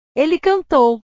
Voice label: "ele cantou", portugues; cantar; portugues/verbos

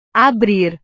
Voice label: "abrir", Portuguese; verbos

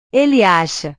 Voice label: "ele acha", portugues; verbos

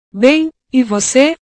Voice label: "bem e voce", portugues

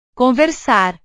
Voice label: "conversar", portugues; portugues/verbos

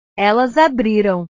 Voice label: "elas abriram", portugues; verbos

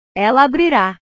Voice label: "ela abrira", portugues; verbos

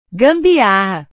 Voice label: "gambiarra", portugues

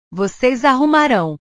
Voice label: "voces arrumarao", portugues; Ustedes ordenarán

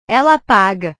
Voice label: "ela apaga", portugues

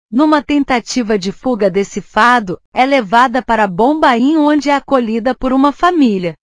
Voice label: "fado", portugues

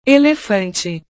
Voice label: "elefante", portugues

